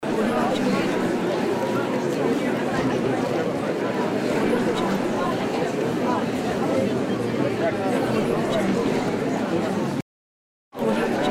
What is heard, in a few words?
crowd talking